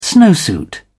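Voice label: "snowsuit", British English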